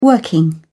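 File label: "working", British English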